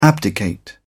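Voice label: "abdicate", British English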